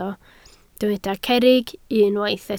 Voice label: "cerrig", Welsh

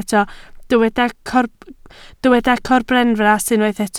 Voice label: "corbenfras", Welsh

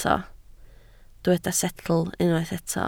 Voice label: "setl", Welsh

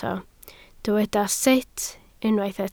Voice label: "sut", Welsh

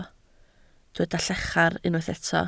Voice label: "llachar", Welsh

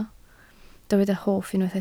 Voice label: "hoff", Welsh

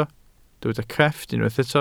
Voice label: "crefft", welsh

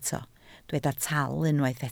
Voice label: "tal", Welsh

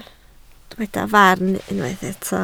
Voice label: "farn", Welsh

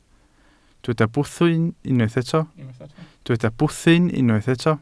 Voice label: "bwthyn", Welsh

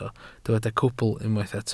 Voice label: "cwbl", welsh

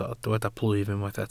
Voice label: "plwyf", Welsh

